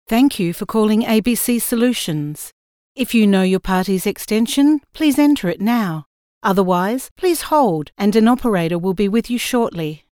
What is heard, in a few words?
Female Phone Message